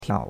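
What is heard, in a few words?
"tiao3", Mandarin Chinese; Cours-de-Chinois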